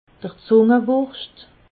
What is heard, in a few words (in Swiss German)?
"zungewurscht", alsace